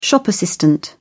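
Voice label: "shop assistant", British English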